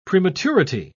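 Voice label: "prematurity", English, British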